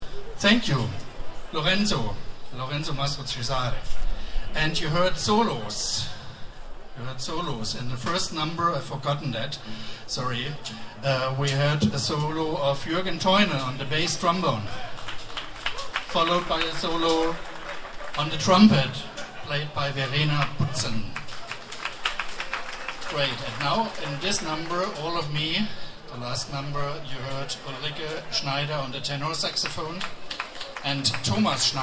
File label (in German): Ansage